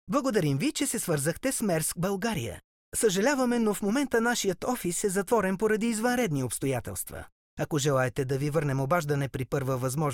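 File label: Bulgarian, male, IVR; voice demos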